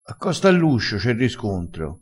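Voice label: "accosta", Italian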